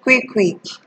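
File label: "quick quick", naija, female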